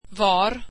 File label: where, swedish